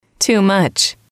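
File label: "too much", English, female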